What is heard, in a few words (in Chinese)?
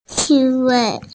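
"ci4wei5", Mandarin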